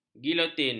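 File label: "gilotin", Malay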